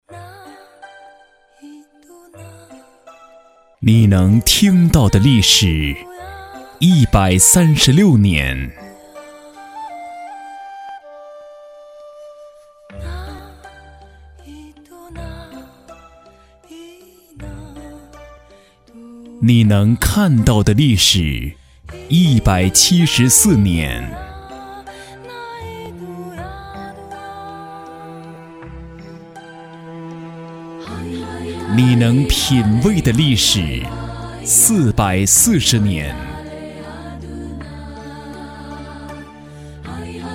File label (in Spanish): Locutor varón chino